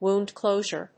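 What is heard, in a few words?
"wound closure", English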